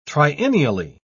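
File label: "triennially", British English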